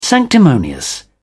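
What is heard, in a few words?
"sanctimonious", British English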